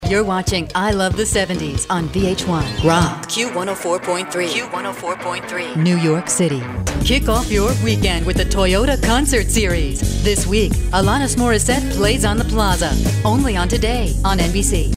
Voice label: imaging, female voice talent

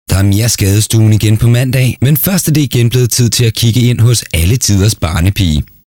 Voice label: Danish; foreign languages

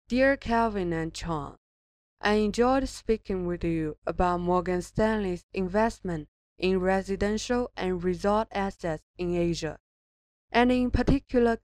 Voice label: Asian Accent; foreign languages

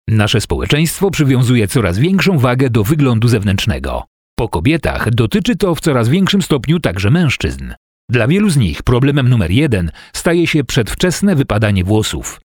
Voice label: polish

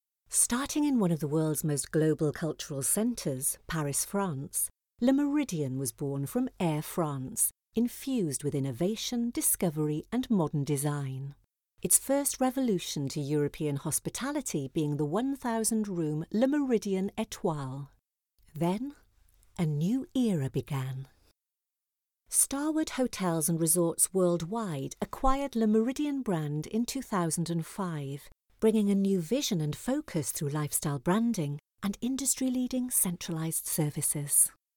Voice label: british accent

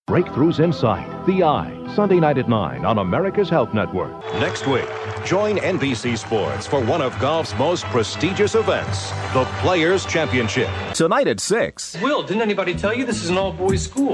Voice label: promo, male voice talent

